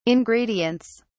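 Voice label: "ingredients", British English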